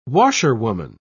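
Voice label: "washerwoman", British English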